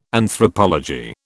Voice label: "Anthropology", British

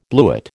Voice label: "bluet", American

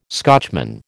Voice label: "Scotchman", American

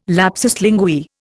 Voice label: "lapsus linguae", Indian

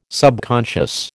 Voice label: "subconscious", American

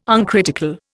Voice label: "uncritical", Indian

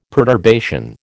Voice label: "perturbation", American

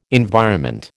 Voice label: "environment", American

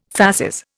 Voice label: "fasces", Indian

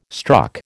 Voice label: "struck", American

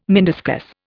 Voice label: "meniscus", Indian

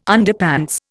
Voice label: "Underpants", Indian

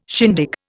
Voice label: "shindig", Indian

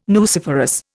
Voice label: "nuciferous", Indian

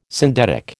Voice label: "syndetic", American

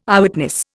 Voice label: "Eyewitness", Indian

